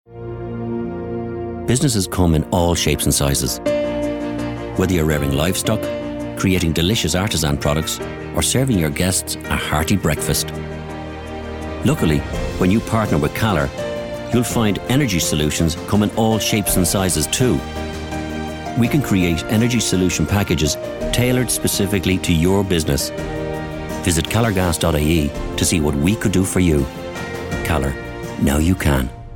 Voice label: Radio comm; Calor Gas